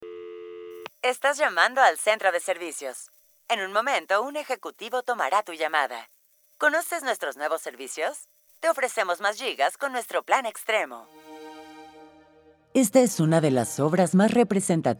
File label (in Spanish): CORPORATIVO CAPSULAS; voiceover demo